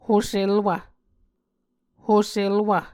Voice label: "hoselwv", muscogee

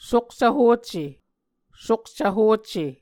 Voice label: "sukcv hocce", Muscogee; Mvskoke Words with Repeated Consonants; Onvkv Ohhvyayices; A Dictionary of Creek/Muskogee; SIL International

